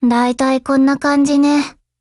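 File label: operation over, Japanese; HK21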